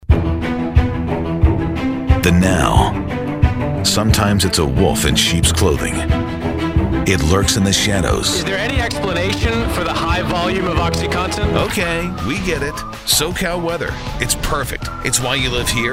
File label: promos, men